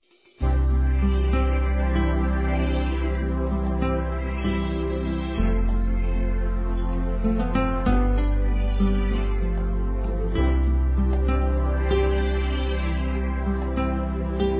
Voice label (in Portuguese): Telemensagens Amor Fem; Telemensagens 2017